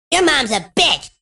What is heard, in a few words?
"bitch", Furby